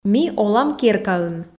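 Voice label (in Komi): ми олам керкаын, Komi, female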